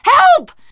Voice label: horde attack, teen girl, survivor voice

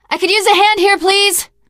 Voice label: ledge hang start, teengirl; survivor voice

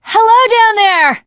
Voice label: initial meeting, teen girl; Left 4 Dead 2; Source Engine; video games

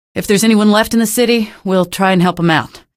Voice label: evac no, female; video games; npc; source engine; episodic; episode 1

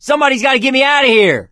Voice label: call for rescue, survivor voice; source engine; left 4 dead 2

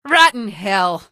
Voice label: kill, female; episodic; episode 1; Source engine

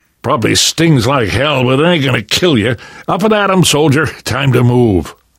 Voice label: revive friend, left4dead2 survivor voice namvet; source engine

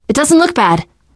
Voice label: revive friend, survivor voice teen girl; Left 4 Dead 2; Source Engine; video games

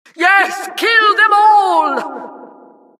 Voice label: announcer, vo, killstreak

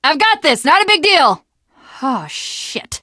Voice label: jumping off bridge, teengirl

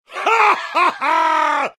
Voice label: heavy summary callout; compmode

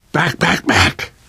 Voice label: backup quiet, voice

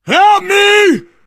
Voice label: help, player survivor voice biker

